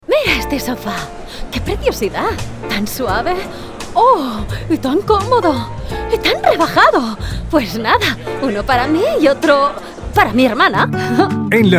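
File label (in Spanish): otros España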